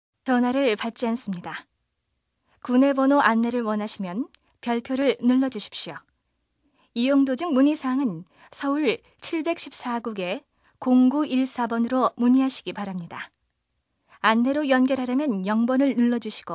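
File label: Korean, female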